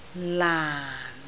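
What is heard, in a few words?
"laan4", Cantonese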